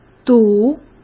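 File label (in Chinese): "赌", Mandarin; chinese-flashcards; hsk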